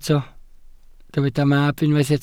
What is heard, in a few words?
"mab", Welsh